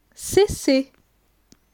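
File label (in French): "Cesser", French; grammaire; la negation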